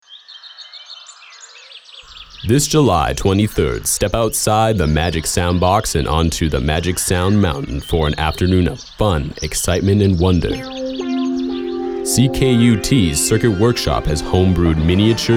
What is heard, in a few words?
Ad; Magic Sound Mountain